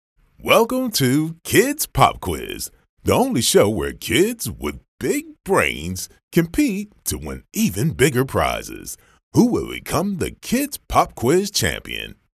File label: english american animation